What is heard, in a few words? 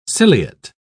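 "ciliate", British English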